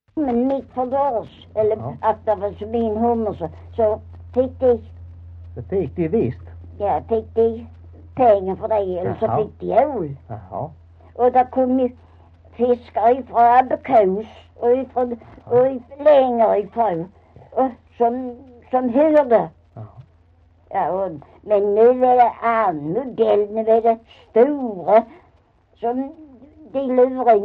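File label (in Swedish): Maglarp Skåne, Svenska sagor dialekter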